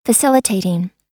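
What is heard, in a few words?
"facilitating", American English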